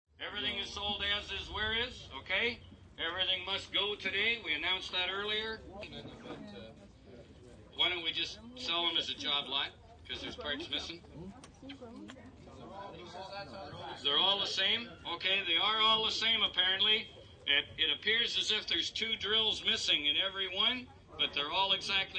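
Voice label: Auctioneer with Ambience, Auctioneer, professional, live on location (stereo); (with ambient background sounds), selling misc. low priced drills an other items; Talking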